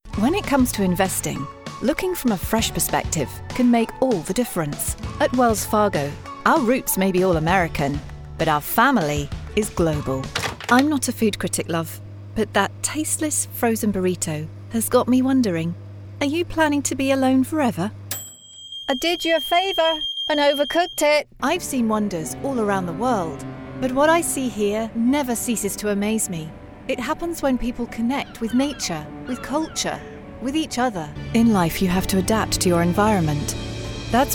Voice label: F, UK UK, COM

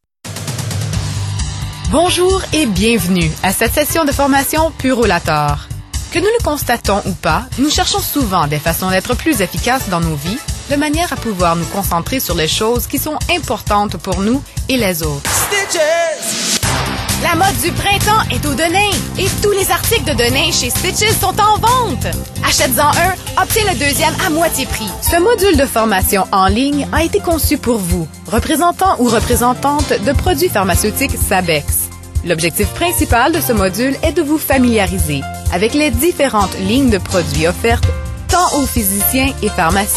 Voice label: F, FR CA

